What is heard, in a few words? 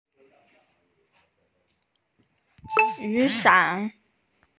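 "yu3san3", Mandarin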